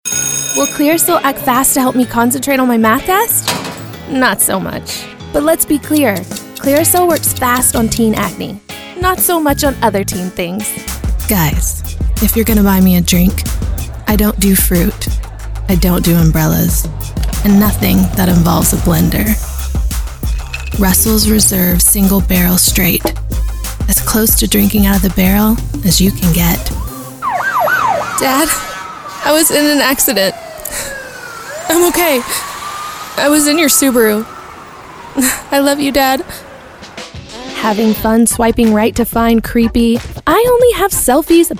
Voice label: Commercial